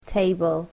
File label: "table", English